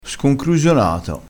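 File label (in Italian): "sconcrusionato", dialettale, crespinese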